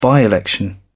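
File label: "by-election", English, British English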